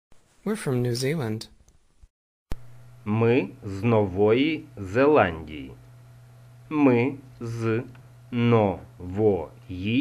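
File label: Ukrainian We are from New Zealand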